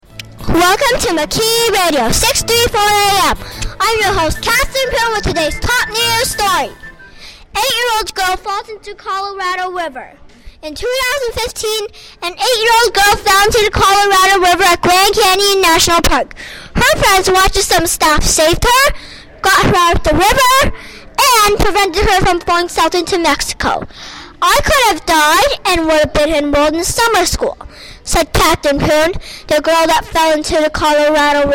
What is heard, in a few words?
newspaper voice